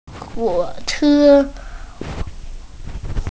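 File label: "huo3che1", Mandarin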